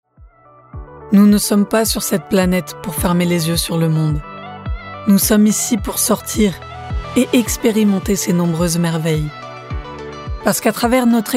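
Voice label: Commercial French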